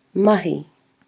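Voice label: "mahi", Maori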